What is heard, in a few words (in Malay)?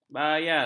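"bayar", Malay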